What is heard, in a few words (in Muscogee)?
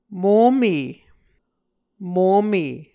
"mome", Muscogee